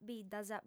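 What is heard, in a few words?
"biddas", female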